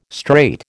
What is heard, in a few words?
"straight", American